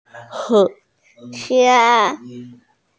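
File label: "he2hua1", Mandarin Chinese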